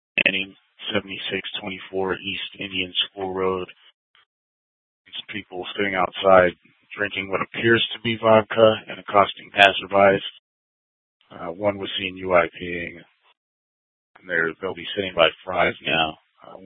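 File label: Scottsdale Police South Dispatch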